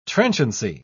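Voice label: "transiency", British English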